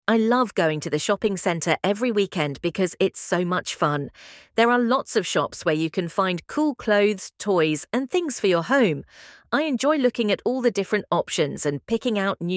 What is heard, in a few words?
British woman; Shopping